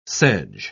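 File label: "sedge", British English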